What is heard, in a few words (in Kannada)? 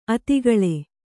"ಅತಿಗಳೆ", kannada